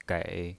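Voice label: "gei", Mandarin Chinese, third tone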